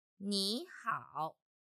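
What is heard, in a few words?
"ni hao 你好", Chinese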